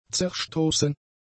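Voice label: "zerstoßen", German; adjectives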